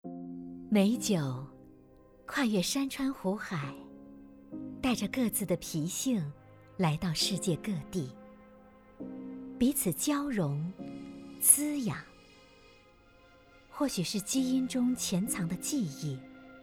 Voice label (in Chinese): 美酒纪录片